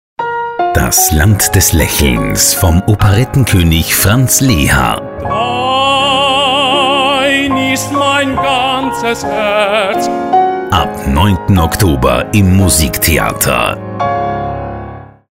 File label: german promos